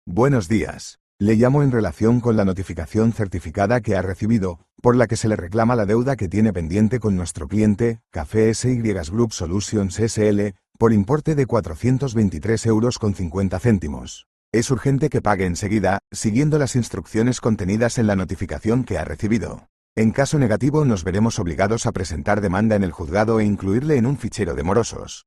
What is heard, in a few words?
audio call